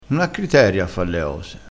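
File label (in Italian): "criterio", vocabolario dialettale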